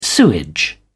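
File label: "sewage", British English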